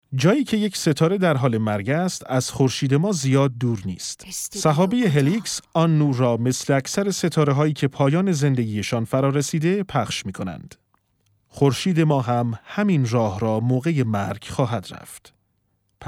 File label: documentary